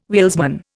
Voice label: "wheelsman", Indian